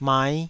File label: "mai5", Taiwanese